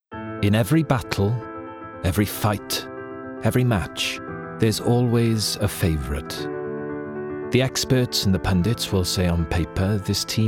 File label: promo